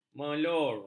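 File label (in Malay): "melur", Malay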